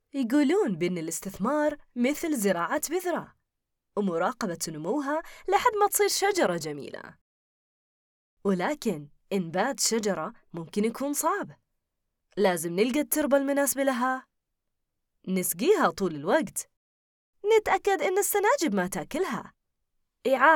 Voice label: خليجي اعلان

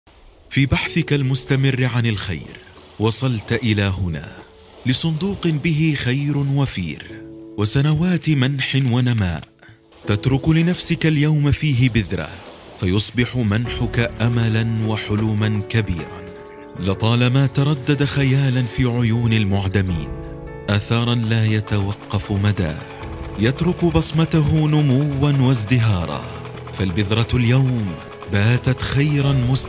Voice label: إعلان فصحى; صندوق الوقف الدولي

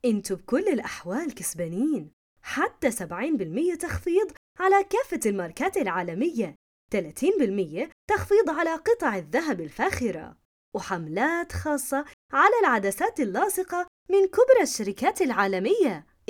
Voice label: اردني اعلان

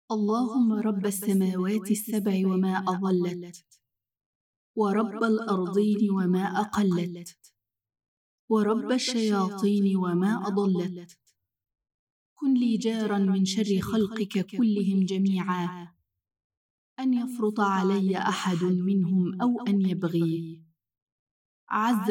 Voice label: دعاء

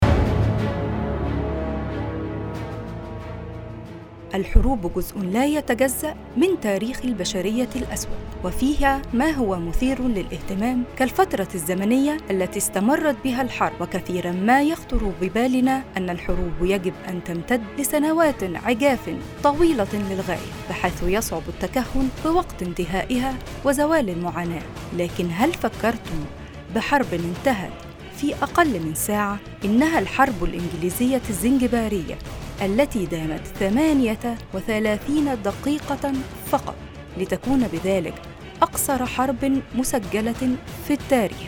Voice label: وثائقي حرب

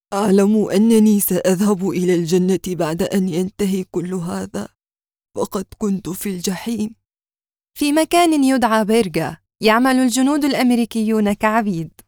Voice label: وثائقي

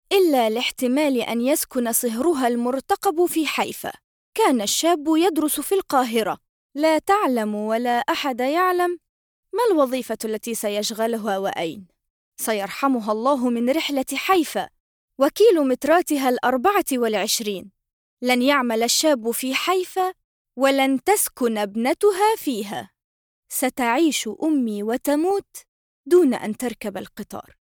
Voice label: دراما

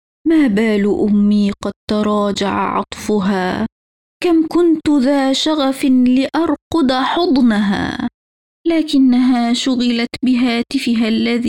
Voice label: شعر بلسان طفل

